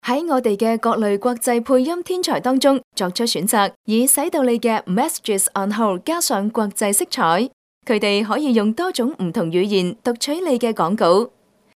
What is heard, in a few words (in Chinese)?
粤女, 广告